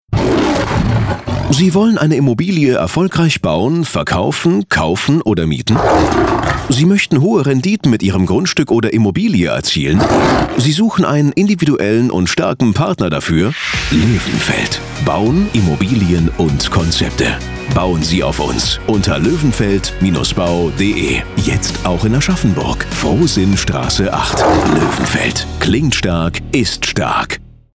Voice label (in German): Radiospot Löwe; LOEWENFELD